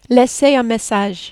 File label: "laisser un message", French